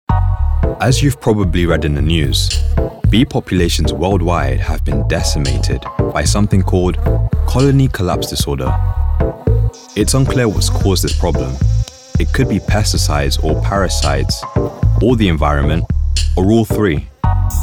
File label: Narration, gentlemen